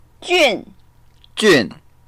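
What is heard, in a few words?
"jun4", Mandarin Chinese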